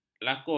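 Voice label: "lakon", Malay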